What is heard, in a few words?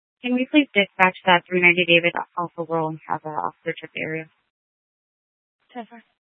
Tempe PD, scanner; Rgnl Wireless Co-op (RWC)